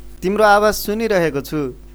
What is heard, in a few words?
boy talk; grade7